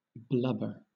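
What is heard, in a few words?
"blubber", English, British accent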